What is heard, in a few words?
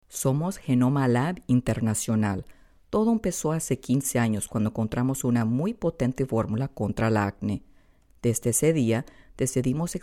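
Spanish serious voice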